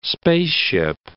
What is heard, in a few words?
"spaceship", English